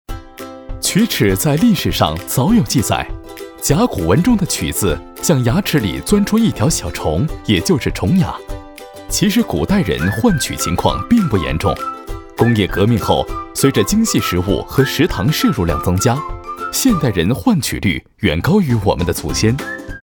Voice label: 男 龋齿 轻快; 飞碟说

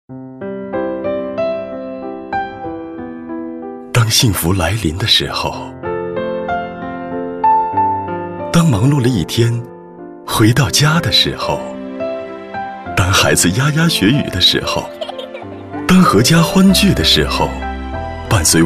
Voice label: 男; 中国邮政储蓄银行-幸福

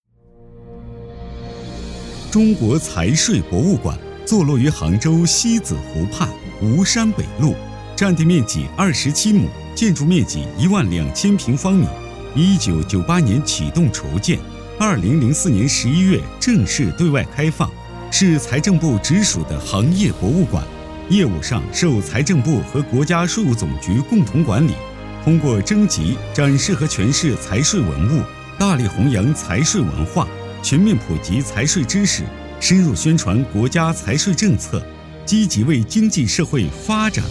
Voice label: 男 宣传片 博物馆宣传片

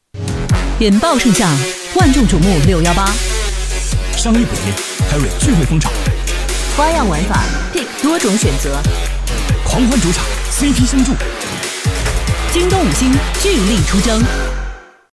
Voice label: 女 个性广告; 京东

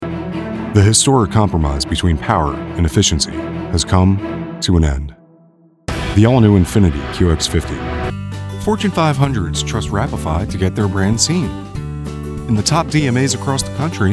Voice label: 外籍英男 宣传片合辑

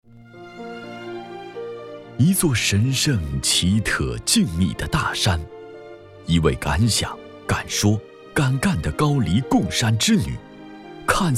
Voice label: 男-人物专题

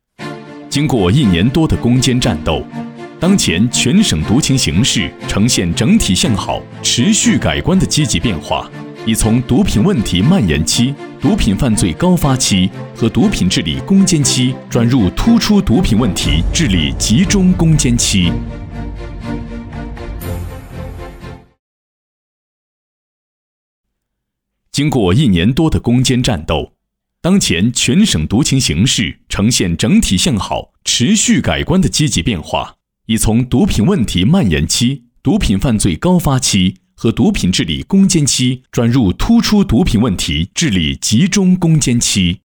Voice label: 男 专题 禁毒

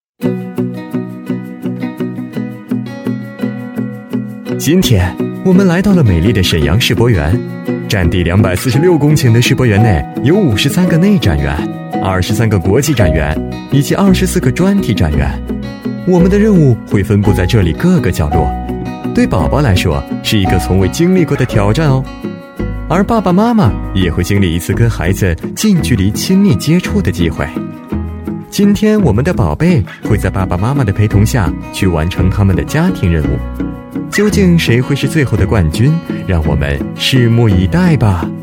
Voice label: 男-轻松; 飞碟说

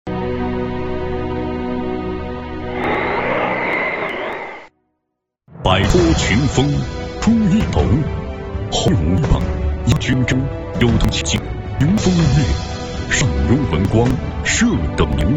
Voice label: 男 高端房产

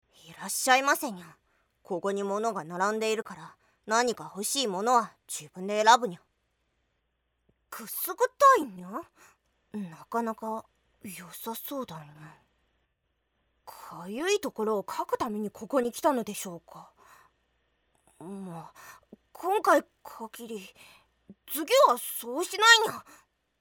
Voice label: 外籍日语女 角色 少年猫耳傲娇

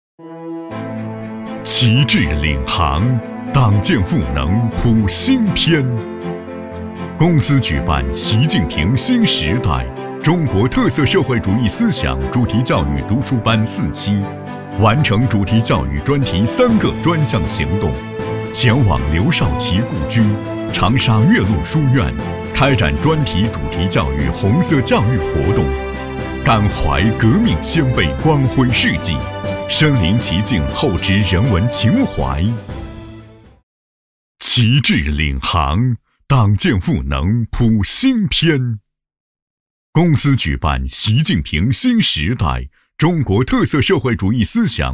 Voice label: 男 党建专题; 旗帜领航

